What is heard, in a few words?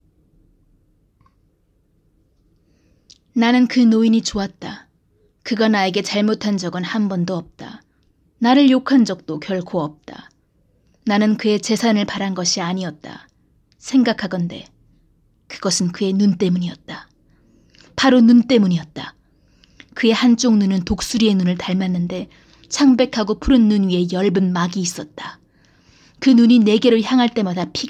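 Narration Korean; GoLocalise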